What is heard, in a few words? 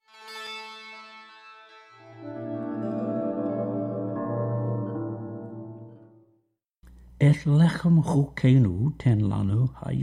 The Lords Prayer Hebrew and English